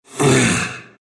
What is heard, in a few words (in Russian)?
grunt ru; Dota 2